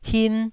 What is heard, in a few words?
"hin1", Taiwanese syllable